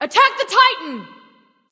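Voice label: attack, male; smite; bellona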